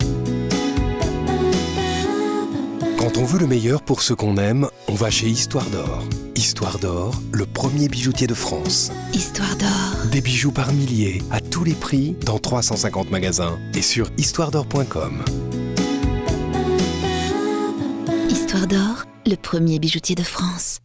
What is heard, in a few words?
Spot radio; HDO